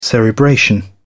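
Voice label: "cerebration", British English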